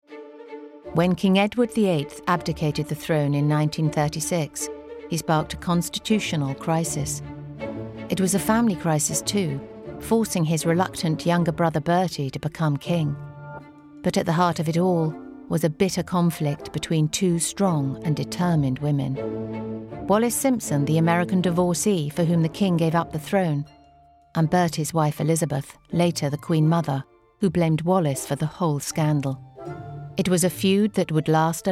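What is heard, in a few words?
documentary compilation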